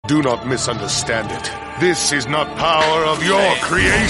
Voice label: this is not power of your creation english notification